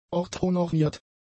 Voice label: "orthonormiert", German; adjectives